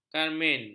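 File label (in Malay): "karmin", Malay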